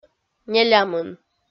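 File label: "нелямын", Komi-Zyrian, female; kpv audio dict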